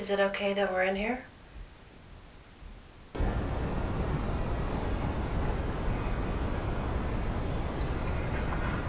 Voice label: Male; evp